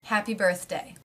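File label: "Happy Birthday", American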